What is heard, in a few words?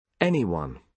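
"anyone", British English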